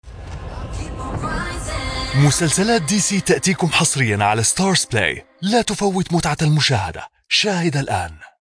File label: Arabic Voiceover